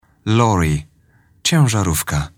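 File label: "lorry", English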